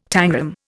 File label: "tangram", Indian